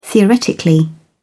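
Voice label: "theoretically", British English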